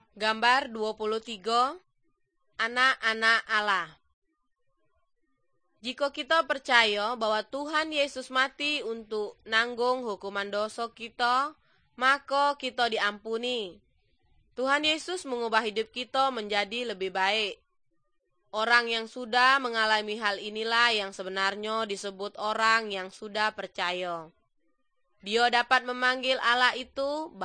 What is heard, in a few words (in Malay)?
good news malay jambi